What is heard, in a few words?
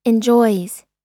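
"enjoys", American English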